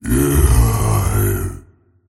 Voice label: anger; tiny